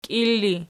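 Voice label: "kiil" ergative, Archi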